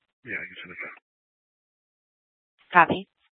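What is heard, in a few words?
police dispatch radio, scanner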